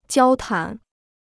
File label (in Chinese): "交谈", Chinese